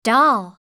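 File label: "doll", English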